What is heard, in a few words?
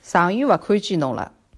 long time no see, shanghainese